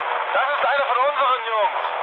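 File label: German player friendly fire mood low, speech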